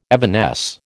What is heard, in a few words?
"evanesce", American